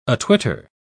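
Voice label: "atwitter", British English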